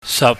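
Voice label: "sap", Cantonese, low level tone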